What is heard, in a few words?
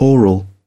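"Oral", British English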